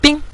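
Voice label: "bing" Mandarin Chinese, neutral tone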